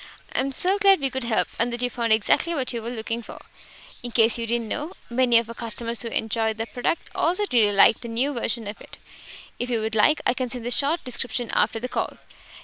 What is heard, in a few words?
Logitech H530